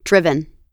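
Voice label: "driven", American English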